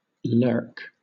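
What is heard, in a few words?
lurk British; pronunciations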